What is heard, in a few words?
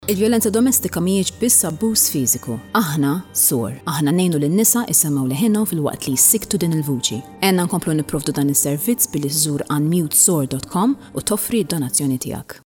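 Maltese, female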